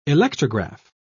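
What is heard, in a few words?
"electrograph", British English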